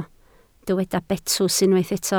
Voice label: "betws", Welsh